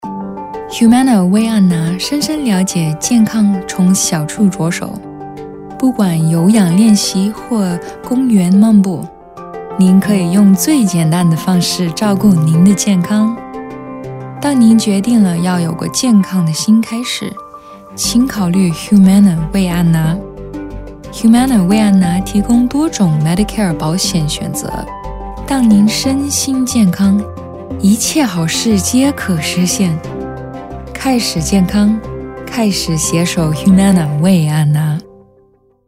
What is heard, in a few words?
Mandarin; Humana